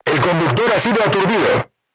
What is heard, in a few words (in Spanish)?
voice message driver contused, Spanish